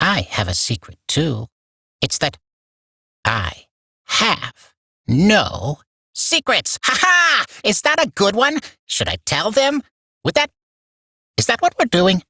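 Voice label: Barks Bird, English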